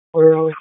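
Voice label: Police Southwest District Disp, radio; Raleigh; wake p25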